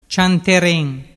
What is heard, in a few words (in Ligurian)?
"cianteren", dialettu